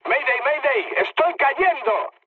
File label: aircraft destroyed mood med; War Thunder